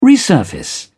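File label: "resurface", English, British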